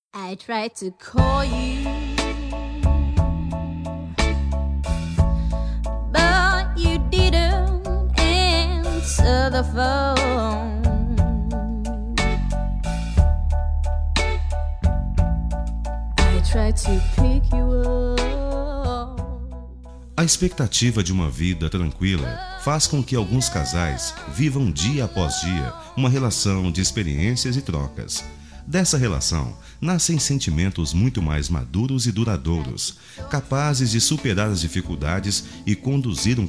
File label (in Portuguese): BODAS DE PRATA GERAL MASCULINO, telemensagem